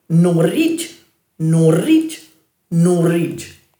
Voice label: "nurridj", Dhurga

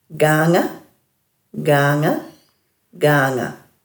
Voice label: "gaanga", Dhurga

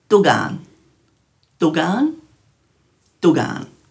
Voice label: "dhugan", Dhurga

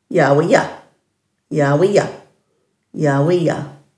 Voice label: "yawiya", Dhurga; dulagaal

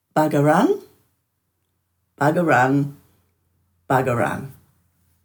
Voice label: "bagaranj", Dhurga

